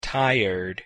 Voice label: "tired", en